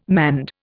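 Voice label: "manned", Indian English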